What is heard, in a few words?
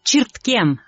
hello, udmurt; Чырткем; hello on the telephone